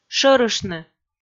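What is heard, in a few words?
"шӧрыштны", Komi, female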